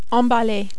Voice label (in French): "emballer", French